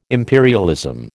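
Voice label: "imperialism", American English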